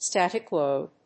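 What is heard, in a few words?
"static-load", English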